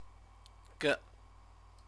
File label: "ge", Chinese